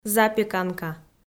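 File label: "запеканка", Komi, female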